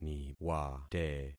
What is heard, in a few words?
"niwate", concat syllables; Affixing experiment